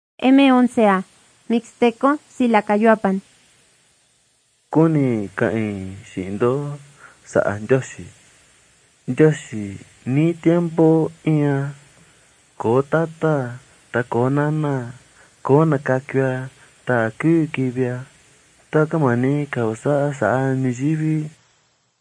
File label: mixtec diagnostic spanish mexico